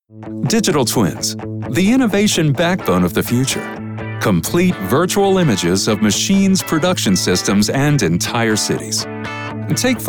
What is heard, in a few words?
Narration